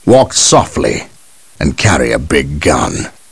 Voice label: chat; gabriel angelos